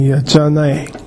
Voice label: "yatyanae", minamiyamagata

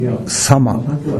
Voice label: "sama", minamiyamagata

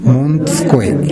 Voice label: "montukoe", minamiyamagata

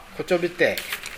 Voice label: "kochobitae", minamiyamagata

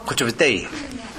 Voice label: "kochobitae", minamiyamagata

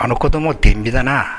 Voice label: "denbi", minamiyamagata

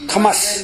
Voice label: "kamasu", minamiyamagata

